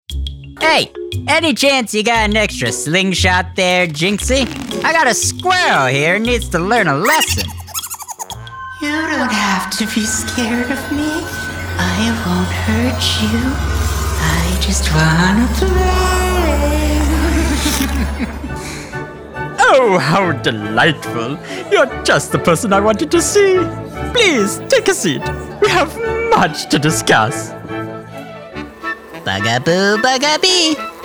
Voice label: Animation Demo